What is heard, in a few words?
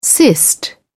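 "cyst", British English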